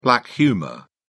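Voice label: "black humour", British English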